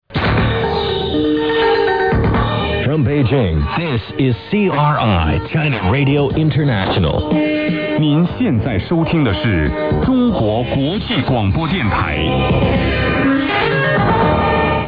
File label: english head; CRI English Header